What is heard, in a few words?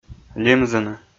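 "лимзыны", Komi, male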